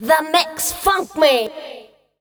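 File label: vocal female, Dance Vocals, spoken words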